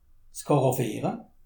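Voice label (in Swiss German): "karaffiere", Alsatian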